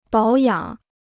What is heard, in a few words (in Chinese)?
"保养", Chinese